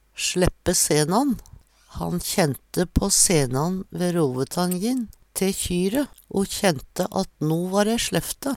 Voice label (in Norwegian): "sleppe senan", Numedalsmål; Han kjente på senan te kjyre, ve rovetanjin, o kjente at no va dei slefft